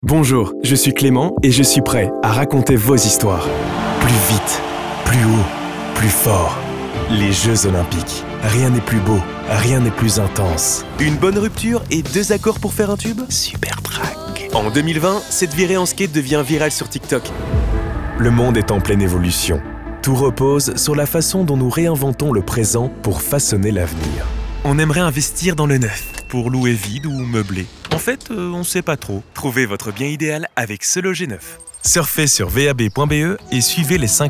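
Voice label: Belgian French, General; GoLocalise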